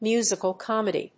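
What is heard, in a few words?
"Musical comedy", English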